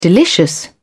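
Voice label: "delicious", British English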